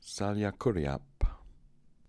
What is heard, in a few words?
"Saliakooriap", pronounciations; Fourth Way; Beelzebub